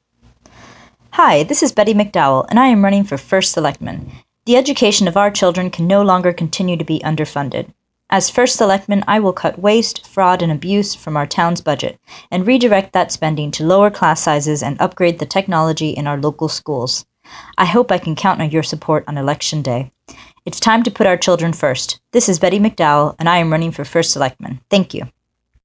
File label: Robo call sample